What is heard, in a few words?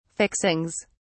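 "fixings", British English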